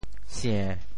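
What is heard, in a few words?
"sie~7", Teochew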